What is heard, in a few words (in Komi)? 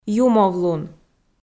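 "юмовлун" Komi, female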